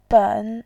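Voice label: "ben", Mandarin Chinese, tone 3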